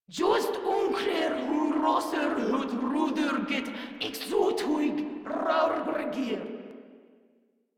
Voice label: Patrol Grineer Female; Warframe

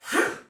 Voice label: Attack, Soldier Male; Sinecure

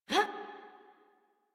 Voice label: Adult Operator Jump, en; Warframe; New War

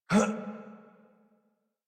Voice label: Adult Operator Jump, en; Warframe; New War

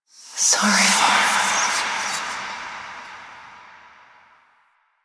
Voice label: Whispers; Warframe; Jade Shadows; Quests

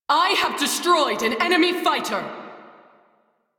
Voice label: Crew Gun Kill Fighter, Female; Railjack Crew; New Loka

